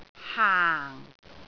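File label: "haang4", Cantonese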